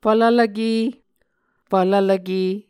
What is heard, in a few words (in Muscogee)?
"fvlvlvke", Muscogee